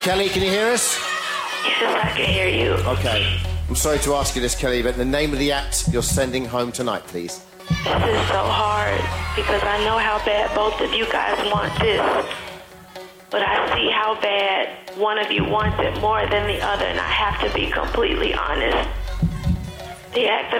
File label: poorly voice